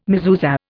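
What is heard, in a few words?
"mezuzah", English, Indian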